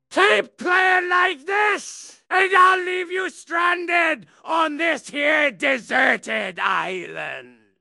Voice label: upset; fertig classic games; blackwind